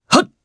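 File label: Vox Casting, Japanese; Theo; kingsraid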